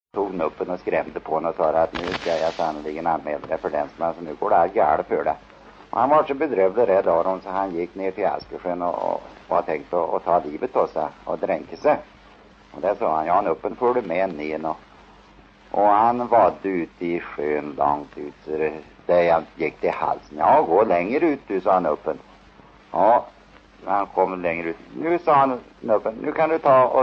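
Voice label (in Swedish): Järnskog Värmland, Svenska sagor, dialekter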